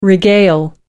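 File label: "regale", British English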